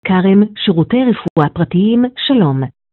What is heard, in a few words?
Call recording